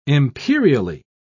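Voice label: "imperially", British English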